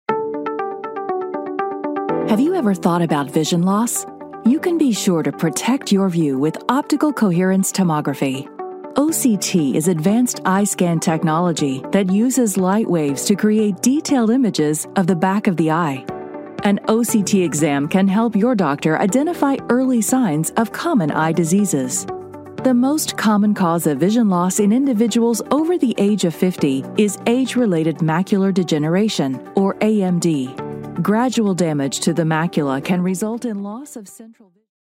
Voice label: Medical Video for Patients Technical Language; Topcon

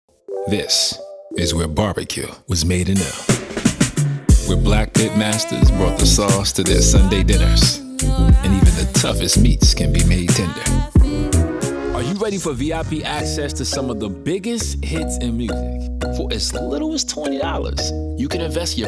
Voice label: Commercial Demo

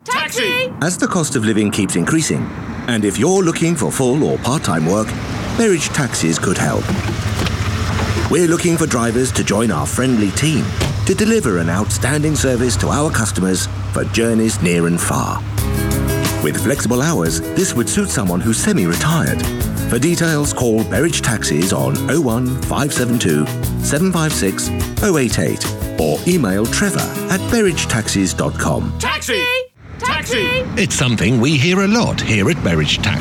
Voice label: Radio Commercial

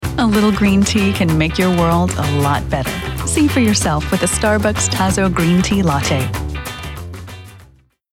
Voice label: Radio Commercial Lighthearted Tea; Starbucks

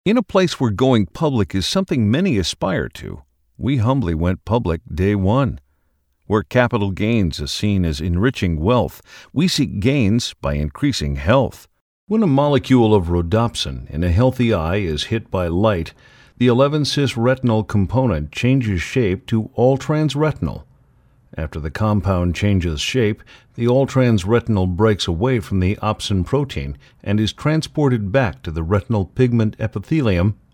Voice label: Narration

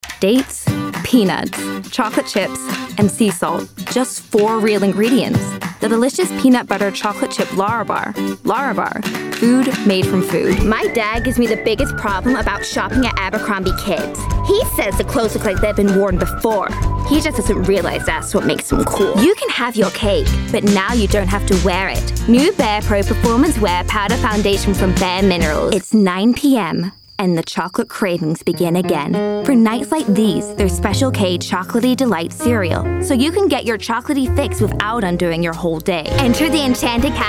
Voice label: Commercial

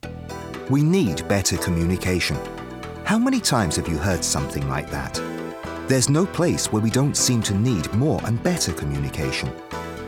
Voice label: Corporate

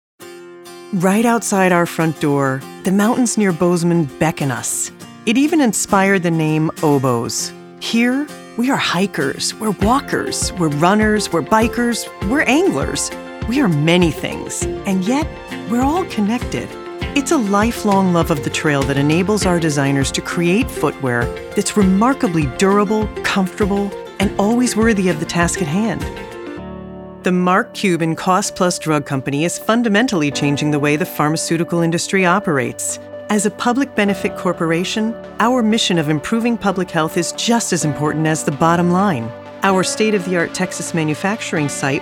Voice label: CORPORATE NARRATION

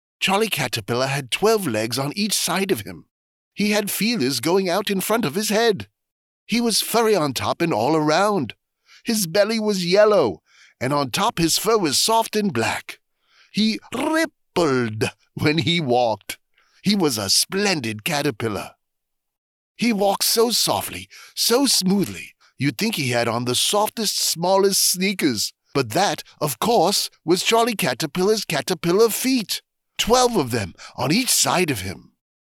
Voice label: retail audio sample; Charlie Caterpillar

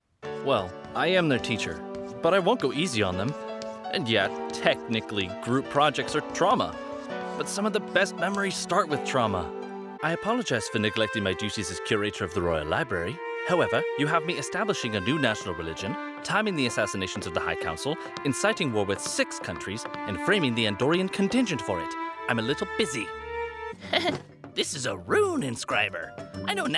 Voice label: Character VO

